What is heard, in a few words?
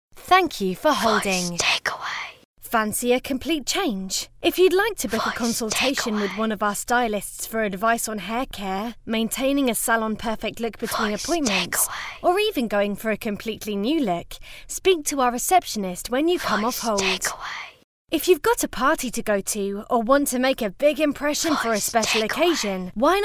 Fresh Female; Hairdressers